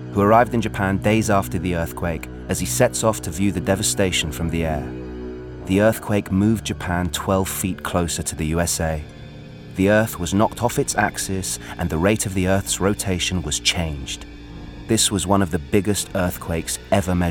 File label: Tsunami Doc; Japan Tsunami